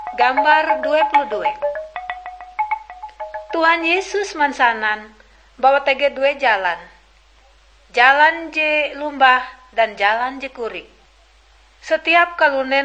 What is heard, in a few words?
kabar bahalap good news ngaju, drama